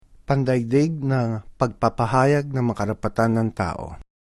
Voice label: title tagalog

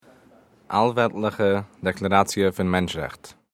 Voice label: Yiddish title

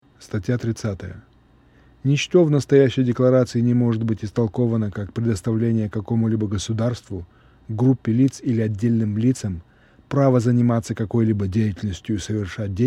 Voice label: Russian

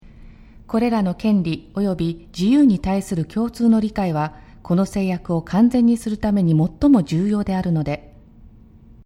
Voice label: Japanese

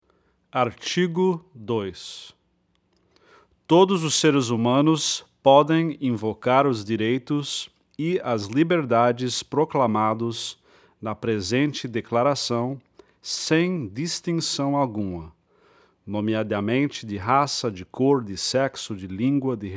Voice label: Portuguese

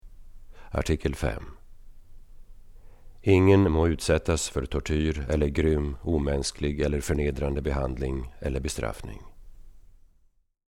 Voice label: Swedish

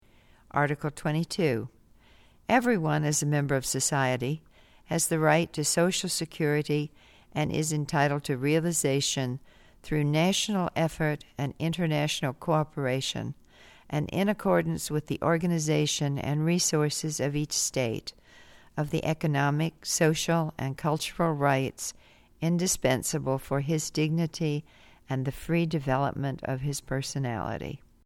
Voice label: English

